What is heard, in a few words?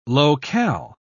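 "low-cal", British English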